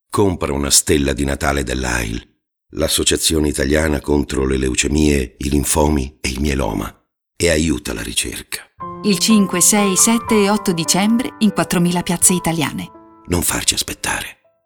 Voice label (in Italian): spot radio